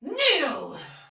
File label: Attack, Soldier Female, Battle; Dialog; Barks; Sinecure